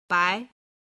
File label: "bai2", Chinese